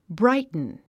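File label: "brighten", English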